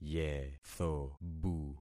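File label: "yethobu", concatenated syllables; Affixing experiment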